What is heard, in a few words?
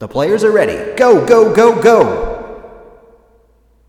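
Wall Start english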